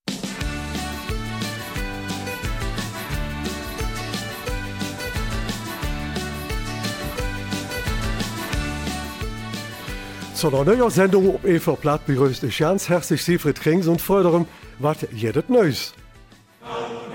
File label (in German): Eifeler Mundart; Wir durften studieren